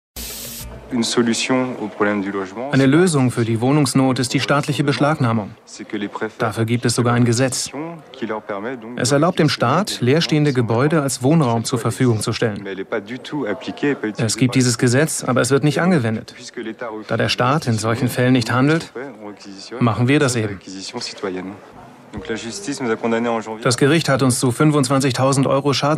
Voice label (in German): arte doku